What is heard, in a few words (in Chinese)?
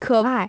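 "可爱" Chinese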